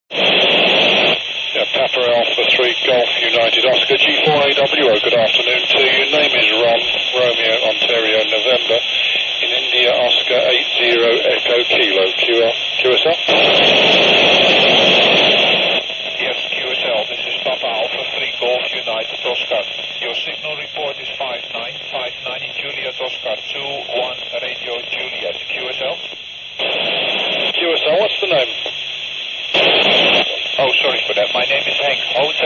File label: FM; SO50